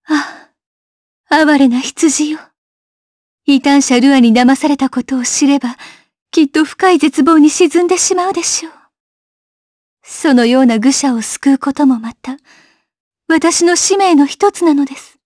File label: Vox, jp; kingsraid